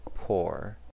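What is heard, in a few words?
"pore", US English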